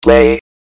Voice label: play, robot; cstrike; quake